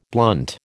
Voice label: "blunt", American